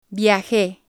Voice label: "viaje", Spanish

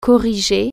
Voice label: "correct", french

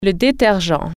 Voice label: "detergent", French

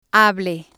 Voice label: "hable", spanish

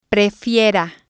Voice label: "prefiera", Spanish

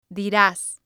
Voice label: "diras", spanish

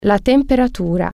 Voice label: temperature, italian

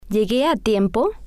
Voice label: "Llegué A Tiempo", spanish; Airport

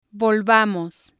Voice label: "volvamos", Spanish

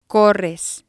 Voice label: "corres", spanish

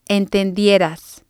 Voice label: "entendieras", Spanish

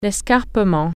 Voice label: cliff, french

